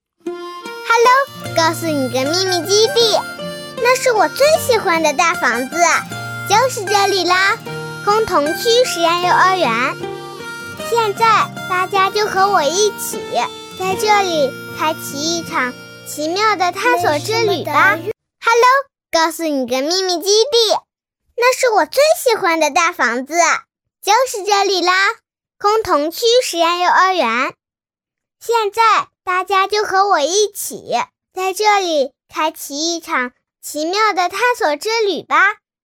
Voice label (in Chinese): 女-真童样音幼儿园; 女B32-大气质感; 可爱